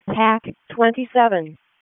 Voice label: WC EMS ALERT, p25; wake